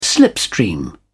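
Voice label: "slipstream", British English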